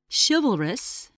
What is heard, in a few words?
"chivalrous", English, voice